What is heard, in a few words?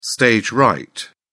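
"stage right", British English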